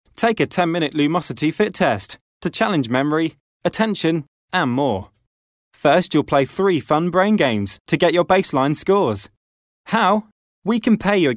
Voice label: natural elearning

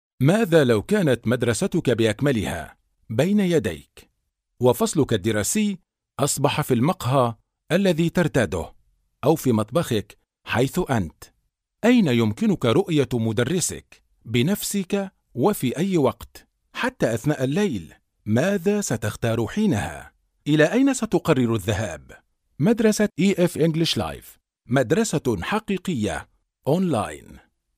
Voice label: arabic male corporate

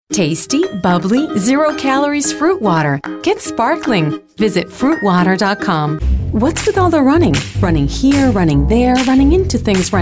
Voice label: latin spanish english

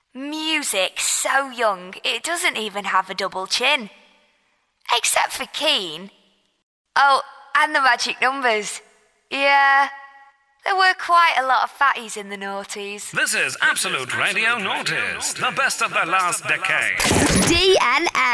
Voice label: imaging

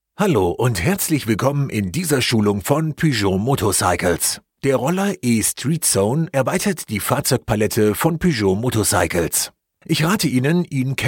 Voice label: german voice explainer

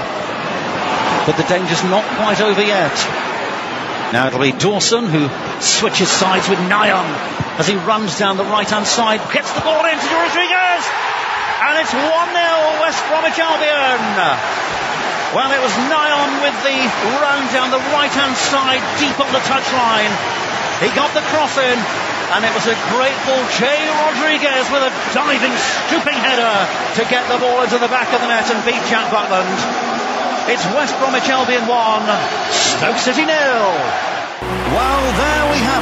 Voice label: football commentator live premier league

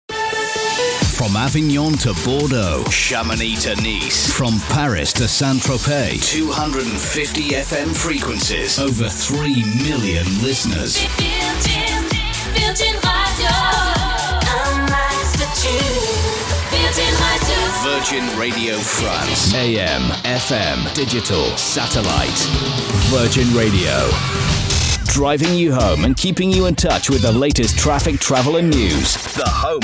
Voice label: station imaging

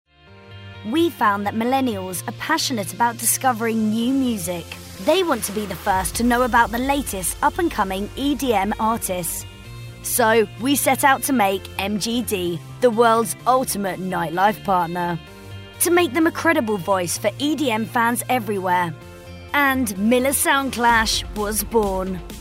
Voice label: corporate

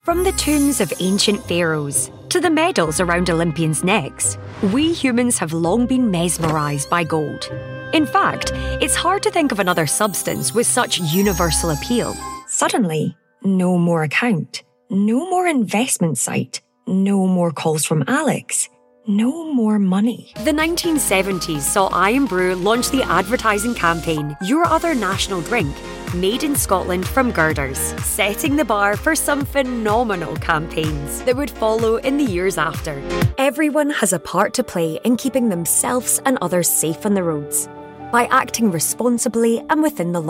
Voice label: corporate

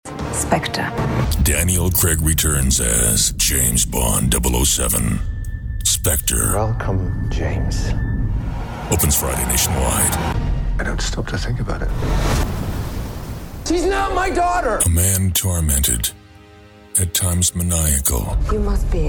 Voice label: american male movie trailer

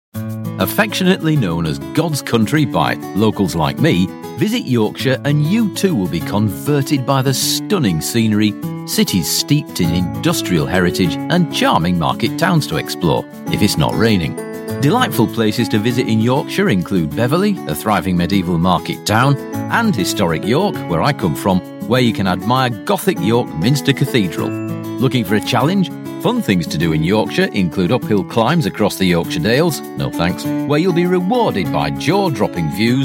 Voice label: northern natural

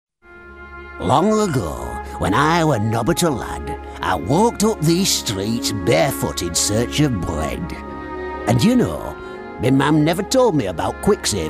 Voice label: yorkshire comic

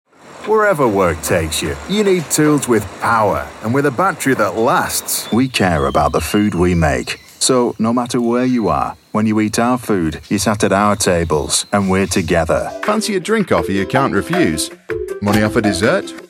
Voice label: commercial

